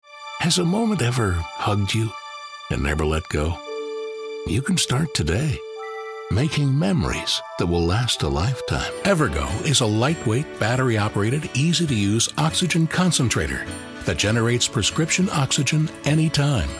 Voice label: Commercial, Male voices